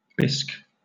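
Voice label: "bisque", British English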